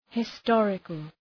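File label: "historical", English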